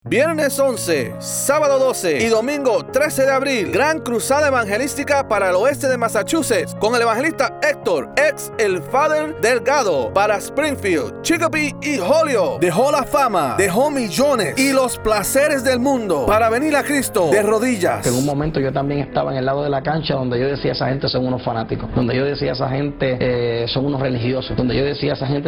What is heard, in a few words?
Radio Spot